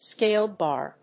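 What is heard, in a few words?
"scale bar", English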